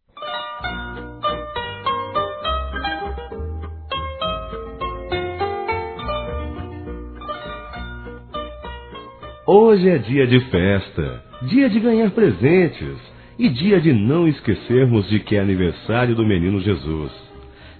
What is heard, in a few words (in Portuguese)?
Natal PARA Criança Masculino, Telemensagens; Natal e Ano novo